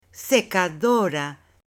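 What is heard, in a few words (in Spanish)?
"SECADORA", Spanish, female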